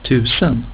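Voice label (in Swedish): "tusen", Swedish; getal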